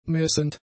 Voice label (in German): "messend", German; adjectives